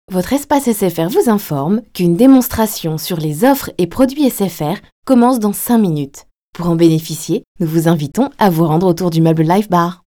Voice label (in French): Voix feminine